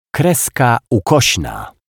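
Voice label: "kreska ukosna", Polish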